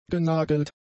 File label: "genagelt", German; adjectives